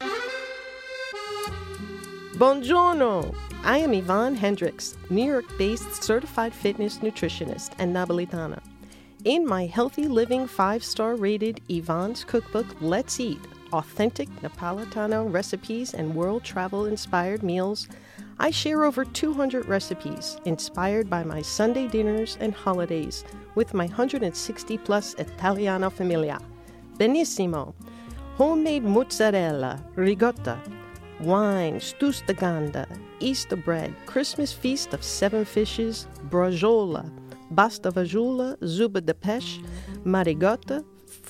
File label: Radio Spot; WFDU